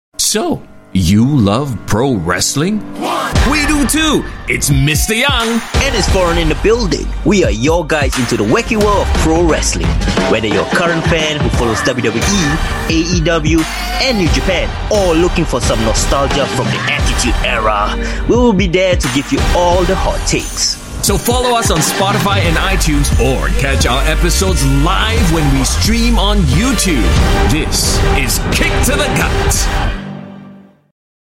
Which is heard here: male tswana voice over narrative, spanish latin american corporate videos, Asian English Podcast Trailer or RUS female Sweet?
Asian English Podcast Trailer